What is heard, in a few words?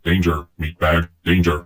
cheavy provoke, vox; Dystopia; vox new